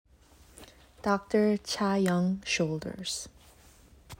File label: Pronunciation of Dr. Chayoung Shoulders